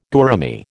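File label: "gourami", American English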